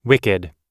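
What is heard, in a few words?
"wicked", English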